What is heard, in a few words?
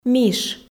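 "миш", Komi, female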